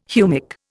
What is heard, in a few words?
"humic", Indian